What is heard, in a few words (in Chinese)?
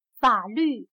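"法律", Chinese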